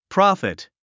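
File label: "prophet", English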